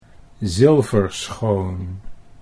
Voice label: "Zilverschoon", Dutch